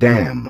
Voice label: "damn", expletives